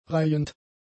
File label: "reihend", German; adjectives